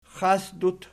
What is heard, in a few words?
"xasdut", Archi